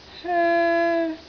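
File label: "hoe1", Cantonese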